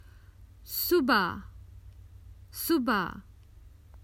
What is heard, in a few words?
Common Hindi Phrases for travel In the morning